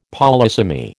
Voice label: "polysemy", American

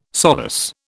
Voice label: "solus", British

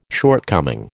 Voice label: "shortcoming", American

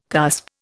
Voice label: "cusp", Indian

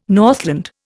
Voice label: "Northland", Indian

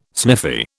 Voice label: "sniffy", British

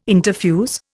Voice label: "interfuse", Indian

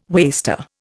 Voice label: "waster", Indian

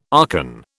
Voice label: "archon", British

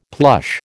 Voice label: "plush", American

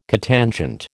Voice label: "cotangent", American

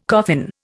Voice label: "coffin", Indian

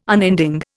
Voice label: "unending", Indian

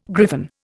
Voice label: "griffon", Indian

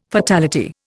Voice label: "fatality", Indian

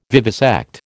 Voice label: "vivisect", American

